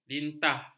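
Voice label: "lintah", Malay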